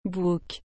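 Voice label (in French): "bouc", French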